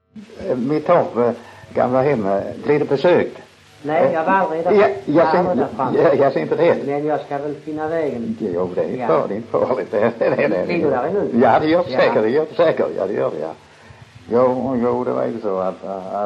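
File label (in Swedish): Munka-Ljungby Skåne, dialekter svenska sagor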